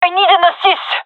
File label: request backup, gendarmerie fem; vo